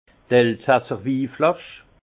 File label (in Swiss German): "elsasser", alsace; vin